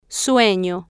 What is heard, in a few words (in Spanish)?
"sueno", Spanish